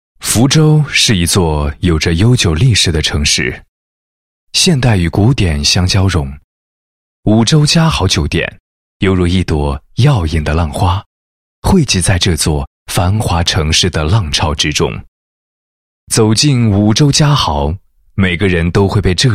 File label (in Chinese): 男 宣传片; 五洲佳豪